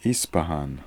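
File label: "Ispahan", pronunciations; Fourth Way; Beelzebub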